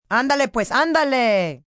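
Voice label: Hurry Up Spanish, female, Spanish; Talking Sound Effects; Calls, action, female, foreign; VoiceBox; Twistedtracks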